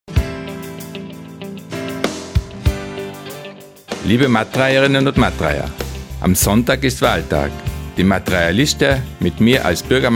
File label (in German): Werbespot Radio; Osttirol